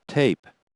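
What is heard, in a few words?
"tape", english pronunciation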